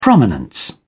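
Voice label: "prominence", British English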